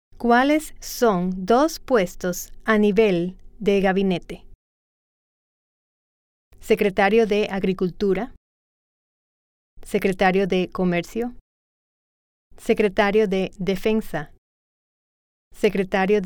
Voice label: Spanish